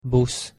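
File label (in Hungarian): "busz", Hungarian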